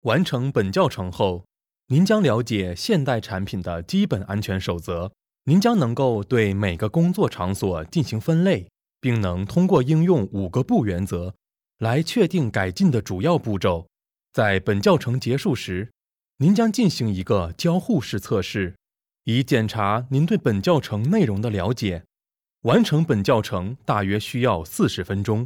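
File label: Chinese, E-learning; GoLocalise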